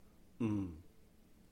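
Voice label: "唔", Cantonese; not; m4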